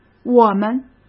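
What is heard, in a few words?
"我们", Mandarin Chinese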